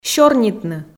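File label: "сёрнитны" Komi, female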